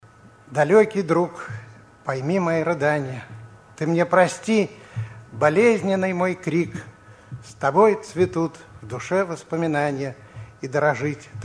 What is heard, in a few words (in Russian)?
стихотворения звук